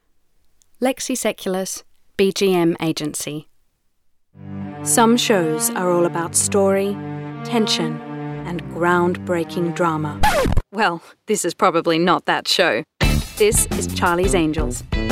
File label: Voice Over